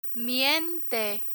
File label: "miente", Spanish